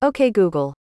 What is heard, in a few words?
ok g us female